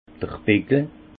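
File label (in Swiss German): "pickel", Haut Rhin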